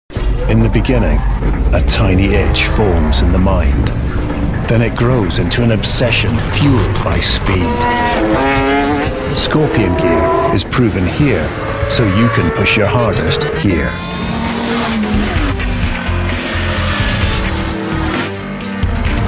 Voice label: National Commercial; Scorpion Sports USA